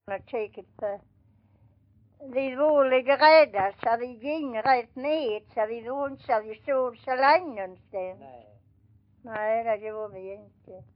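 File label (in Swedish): Vånga Skåne, dialekter; Folkminnen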